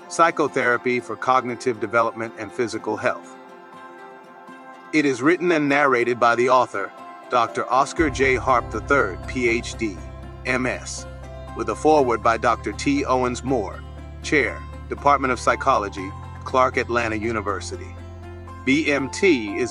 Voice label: Audiobook Sample Brain Enhancement BE Mindful Therapy en; Audacity